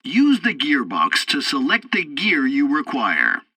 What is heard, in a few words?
tutorial tank arcade gears, english; War Thunder